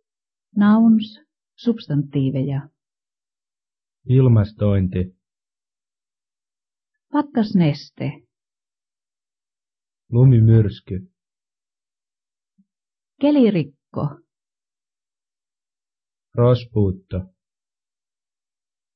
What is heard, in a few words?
Conversational Finnish; FSI